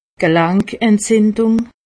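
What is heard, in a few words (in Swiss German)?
"gelankentzindung", Haut Rhin; medecine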